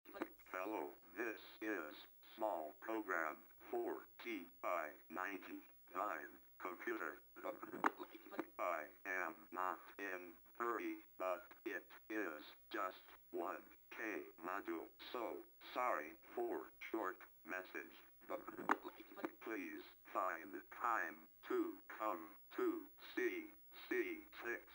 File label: Synthesized speech